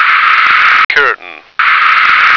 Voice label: "curtain", American